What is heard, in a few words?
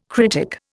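"critic", Indian English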